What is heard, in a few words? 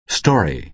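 "story", American English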